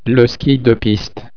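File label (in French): "ski piste", French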